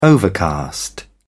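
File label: "overcast", British English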